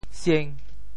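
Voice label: "sieng5", Teochew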